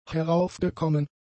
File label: "heraufgekommen", German; adjectives